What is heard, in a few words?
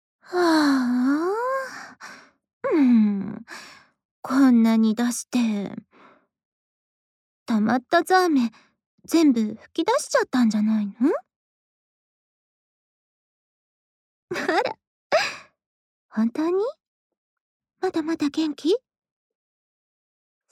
LOVE Whisper, ASMR